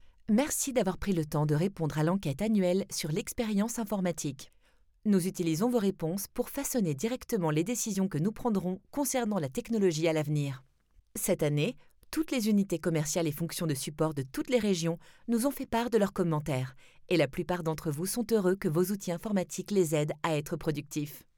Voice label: french corporate videos; native French VO artist

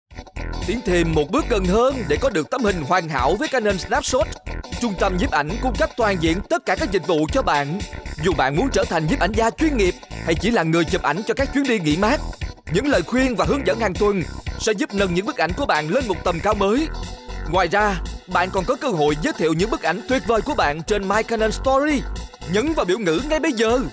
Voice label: Vietnamese commercial

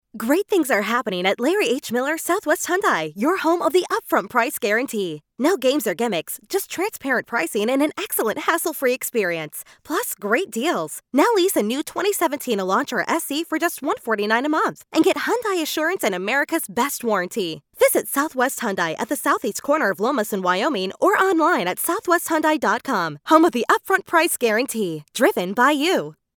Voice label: english american automotive

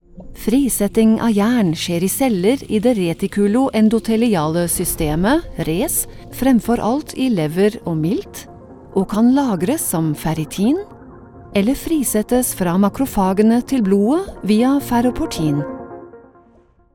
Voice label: norwegian medical narration; German Norwegian; Germany

